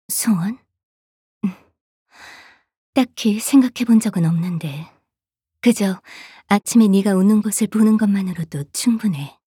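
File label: voice Korean; Berenica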